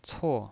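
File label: "cuo", Mandarin Chinese pinyin voice, fourth tone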